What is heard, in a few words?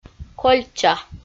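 "кольча", Komi, female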